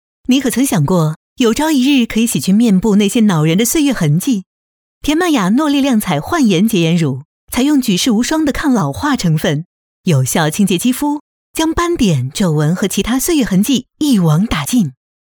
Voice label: 女 化妆品广告 动感知性; 大溪地

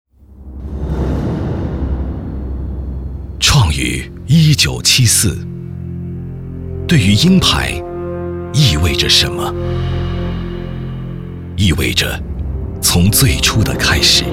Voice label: 男 广告 走心广告; 鹰牌

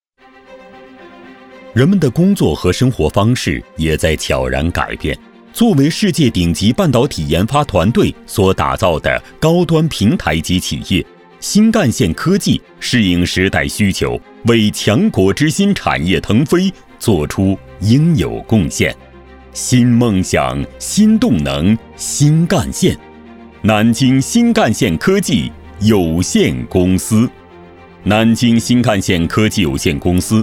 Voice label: 男 专题 高新技术企业介绍; 南京新干线

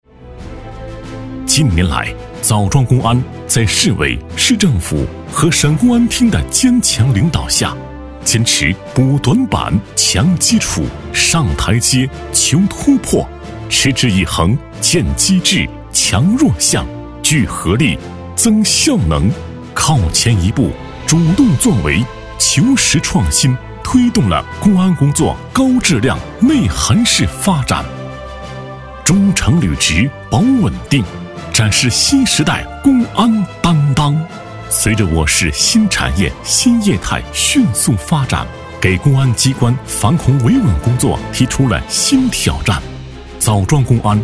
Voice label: 男 公安专题; 枣庄公安

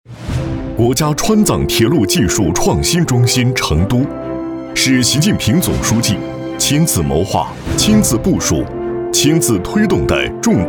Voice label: 男, 工程汇报, 川藏铁路

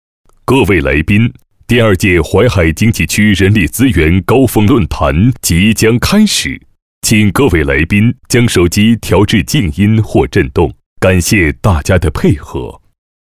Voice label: 男 颁奖 大会现场

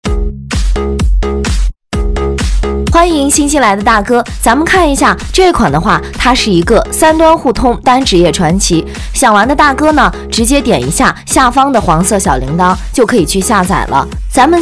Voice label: 女 直播间 游戏

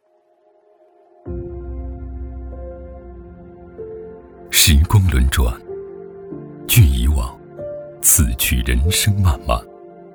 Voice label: 男 广告 独白 片 走心温暖; 城发印记

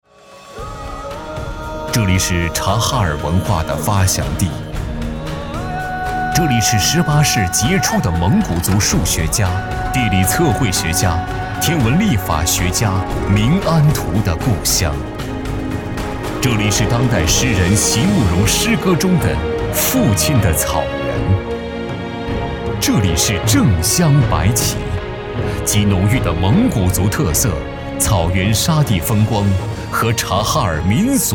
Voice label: 男 大气宣传 民族专题

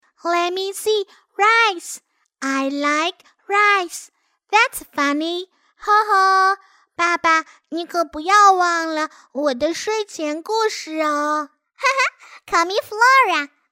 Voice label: 英女 中英文 男女童声模仿

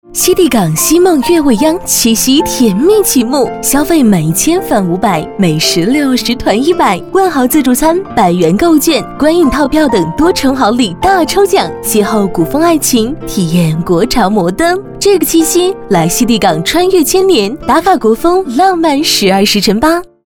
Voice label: 女 知性温柔; 熙地港

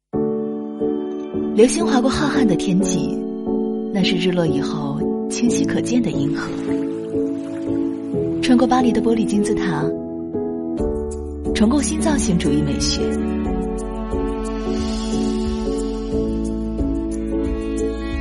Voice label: 女 广告; 欧派星辰系列

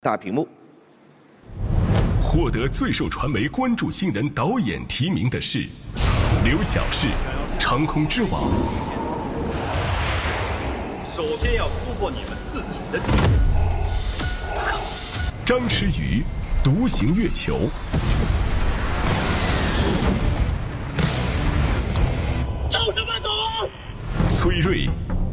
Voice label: 男 颁奖; 电影频道传媒关注单元颁奖节选

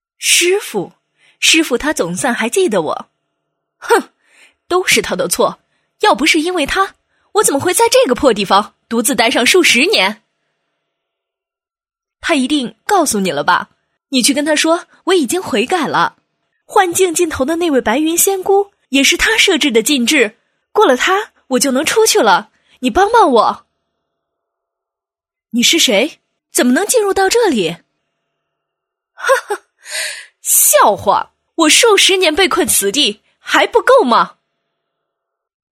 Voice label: 女 角色人物 游戏角色

